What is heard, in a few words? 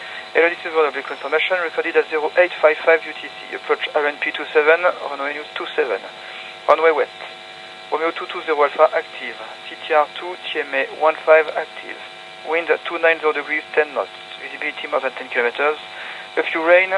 ATIS; LFLS